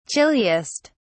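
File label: "chilliest", British English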